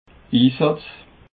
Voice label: "insatz", Alsace

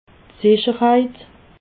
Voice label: "sicherheit", alsace

